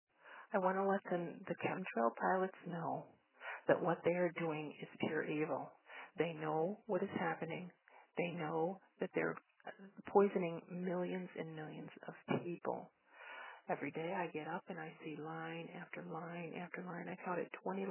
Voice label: voice message